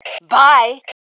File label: Global Bye, Female